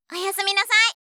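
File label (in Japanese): "おやすみなさい", Japanese; ASMR; あいさつ系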